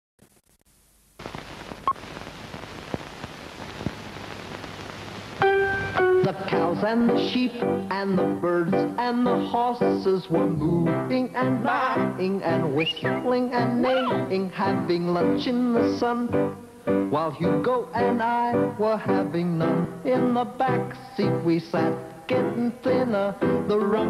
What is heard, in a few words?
kentucky fried chicken commercial